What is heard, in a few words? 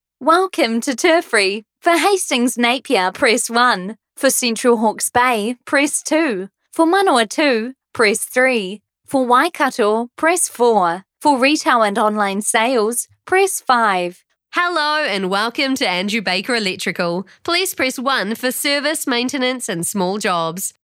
NZ Voice Talent Phone